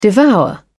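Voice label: "devour", British English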